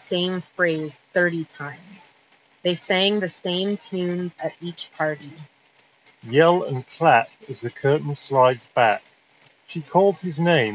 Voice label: JLab Epic Air Sport ANC Wind microphone sample